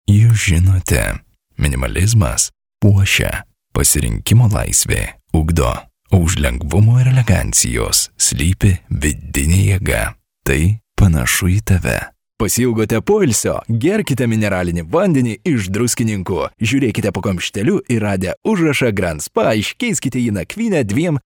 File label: male lithuanian voice over talent sales